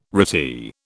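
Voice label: "retie", British English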